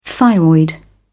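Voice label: "thyroid", British English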